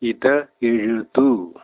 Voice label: write, malayalam

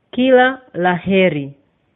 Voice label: good luck swahili

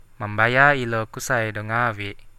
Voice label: pay, Central Dusun

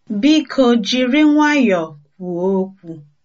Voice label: slowly, igbo

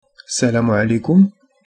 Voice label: hello, arabic; اسلا عليكم; Arabic (Moroccan); (ssalamū 'lekum)

